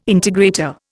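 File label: "integrator", Indian English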